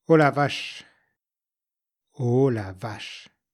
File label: La vache in French